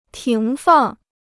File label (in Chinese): "停放", Chinese